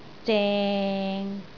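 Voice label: "zeng6", Cantonese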